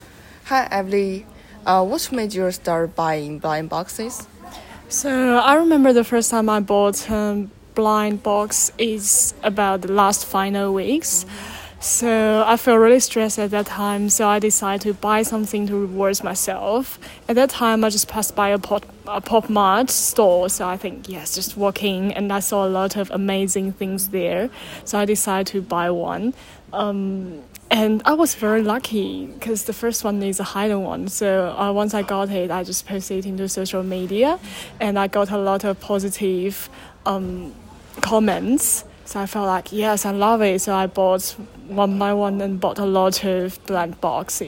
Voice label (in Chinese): 采访